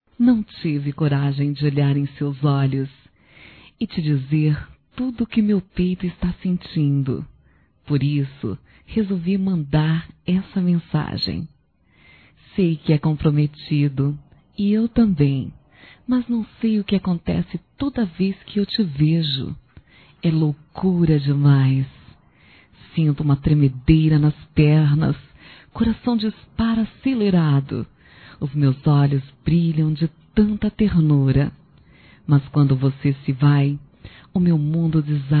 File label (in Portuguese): Feminino; Amor Proibido Feminino